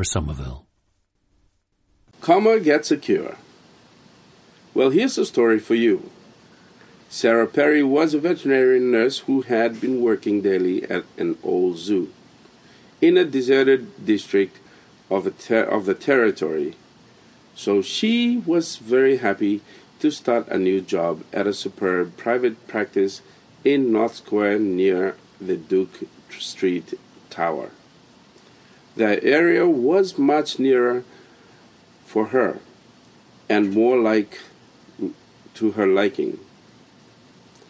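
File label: fiji, International Dialects of English Archive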